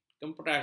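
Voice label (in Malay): "kemperas", Malay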